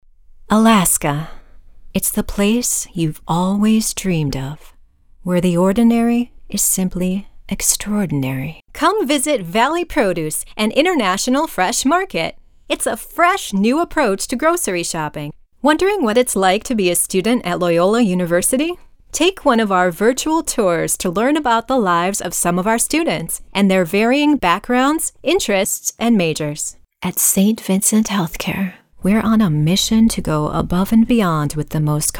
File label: Commercial Demo Dry, voice over; voice over demos